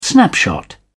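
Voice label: "snapshot", British English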